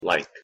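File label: pronunciation "like", English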